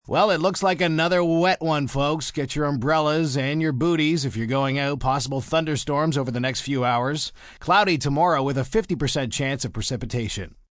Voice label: Weather Wet M, Broadcast, weather, male weather report, thunderstorms, news; human voice speaking; VoiceBox